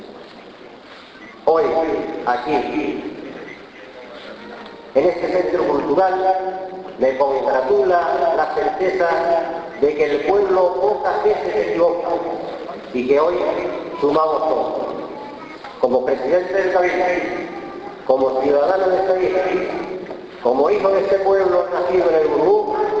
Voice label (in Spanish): Discurso